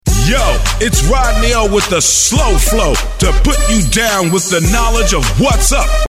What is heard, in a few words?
Promo